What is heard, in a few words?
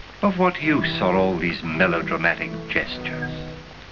melodramatic